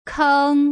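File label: "keng", Mandarin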